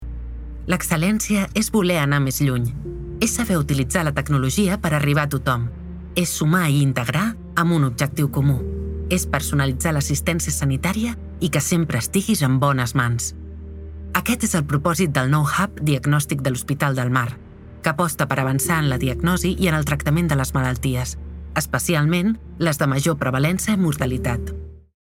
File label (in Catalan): CORPORATIU INSTITUCIONAL